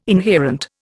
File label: "inherent", Indian